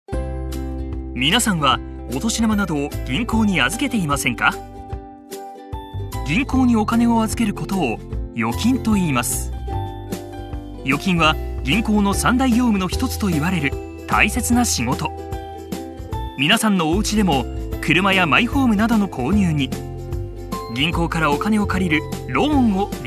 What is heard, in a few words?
Japanese corporate videos